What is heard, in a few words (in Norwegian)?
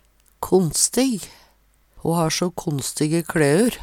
"konstig", Numedalsmål; Adjektiv